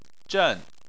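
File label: "zhen" Chinese, fourth tone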